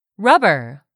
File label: "rubber", English; 3000 words